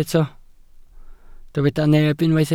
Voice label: "neb", Welsh